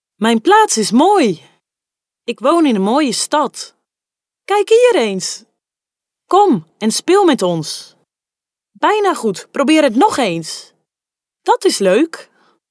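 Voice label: Dutch female children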